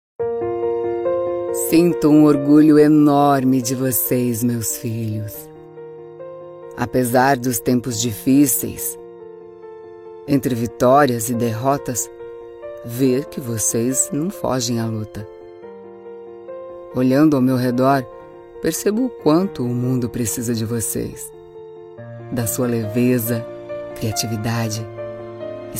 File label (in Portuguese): Mensagem otimista senior carinhosa conversada; Sulboro